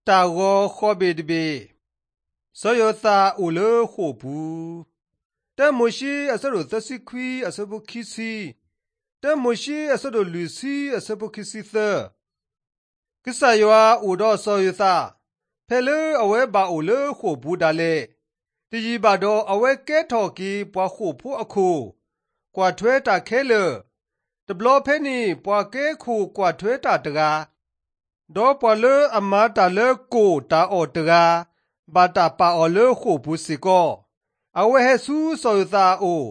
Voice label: drama, karen sgaw; mighty men of god; bible

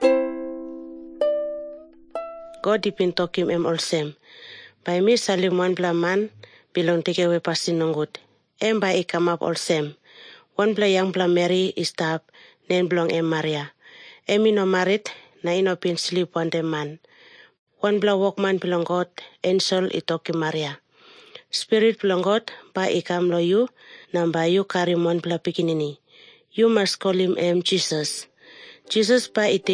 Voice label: tok pisin; png nt drama; can god forgive me

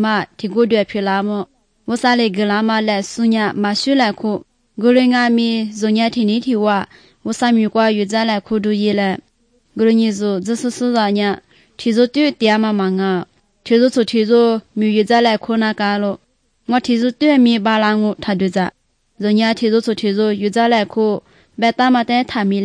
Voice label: good news lisu southern, drama; bible

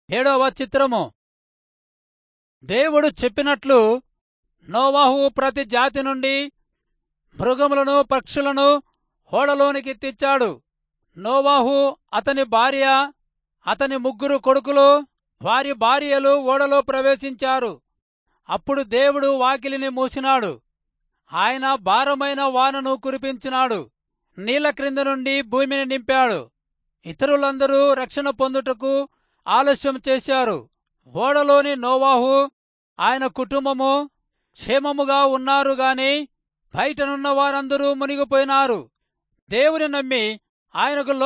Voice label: telugu rayalseema; good news

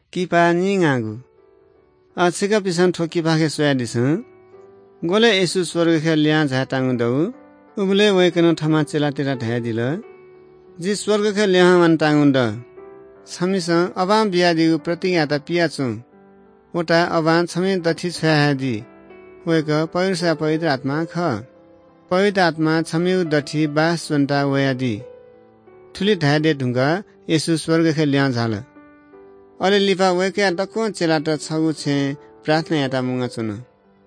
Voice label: good news newari bhaktapur

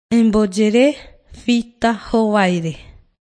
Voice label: john guarani mbya, bible

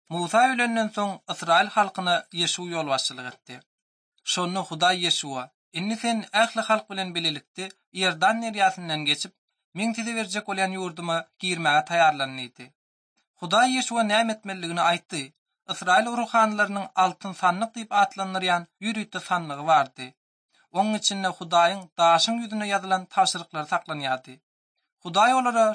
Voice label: turkmen, ot drama; institute for bible translation; servants of god

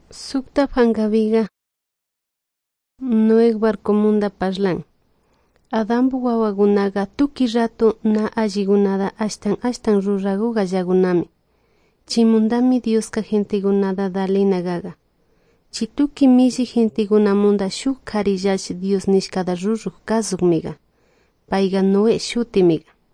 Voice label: good news quichua de salasaca

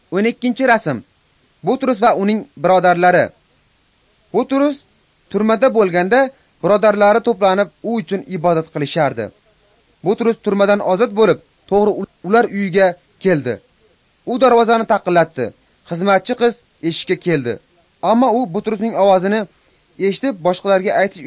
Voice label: uzbek northern; acts of the holy spirit

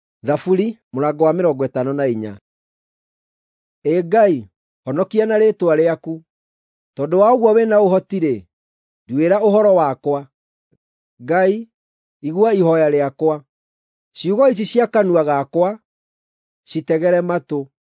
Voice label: psalms, gikuyu drama; bible

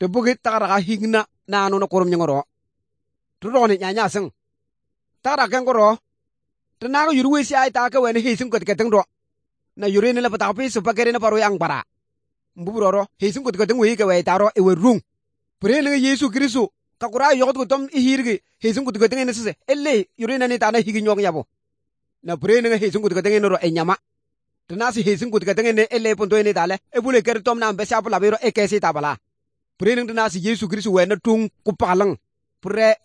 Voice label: good news, kabiye bible

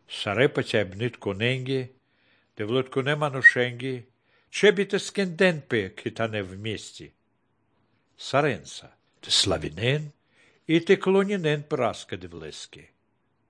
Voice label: good news baltic romani; visagalis dievo zmogus; romani baltic nvs nt drama

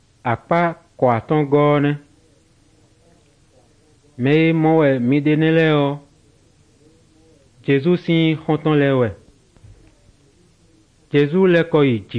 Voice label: good news, fon fongbe bible drama

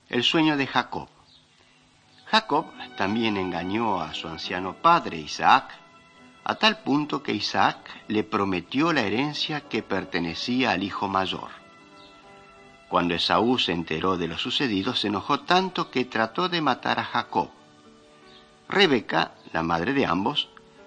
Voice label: mighty men of god spanish latin america

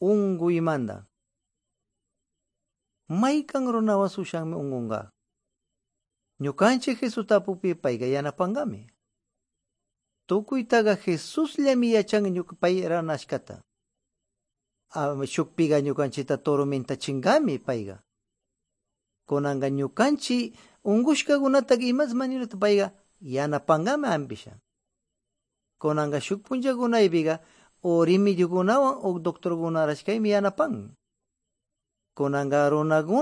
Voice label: good news quichua de pastaza

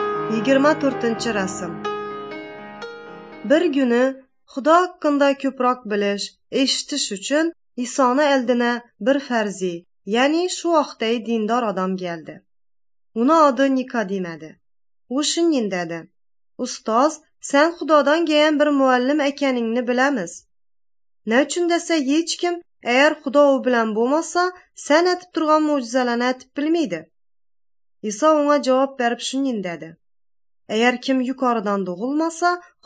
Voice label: uzbek northern kipchak; good news

